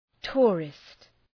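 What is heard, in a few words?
"tourist", English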